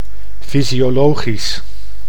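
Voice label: "fysiologisch", Dutch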